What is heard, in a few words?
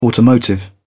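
"automotive", English, British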